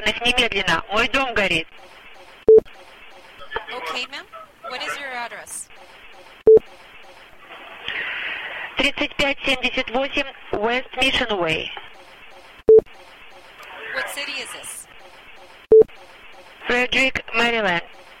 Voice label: 911 House Fire Russian without interpretation